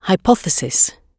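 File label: "hypothesis", British English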